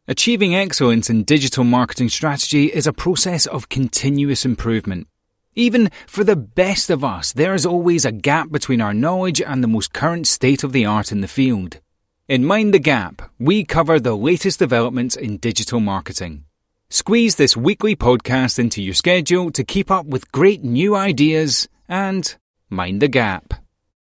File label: english british podcasts